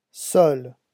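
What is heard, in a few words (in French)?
"seul", French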